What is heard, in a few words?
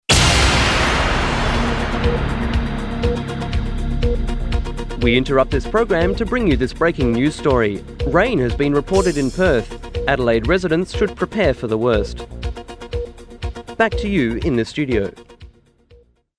Breaking News Rain